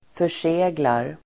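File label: "förseglar", Swedish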